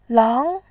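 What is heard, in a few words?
"lang2", Mandarin Chinese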